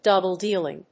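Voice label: "double-dealing", English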